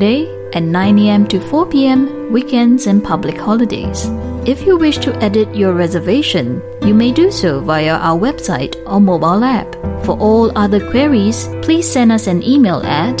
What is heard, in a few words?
Asian English Hotline Customer Service; Quandoo